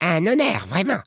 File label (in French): un honneur vraiment, gnome, male; PhoenixCraft-1.16.5; PhoenixNPCPack; minecraft